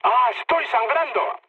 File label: damaged pilot mood low; War Thunder